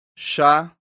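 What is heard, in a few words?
"ŝa", esperanto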